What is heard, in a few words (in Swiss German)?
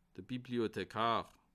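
"bibliothekar", Bas Rhin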